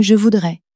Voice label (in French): "je voudrais", French; flashcards